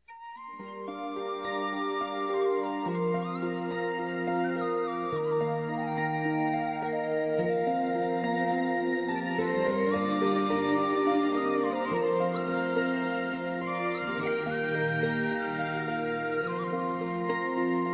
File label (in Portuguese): ADMIRADORA SECRETA, Telemensagens, fem; Conquistas Cantadas paqueras; Telemensagens 2017